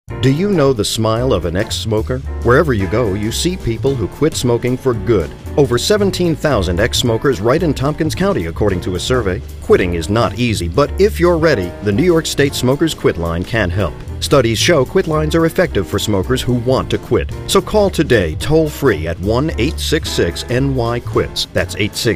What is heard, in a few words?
radio male